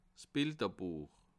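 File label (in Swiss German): "bilderbuech", Bas Rhin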